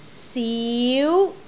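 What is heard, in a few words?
"siu2", Cantonese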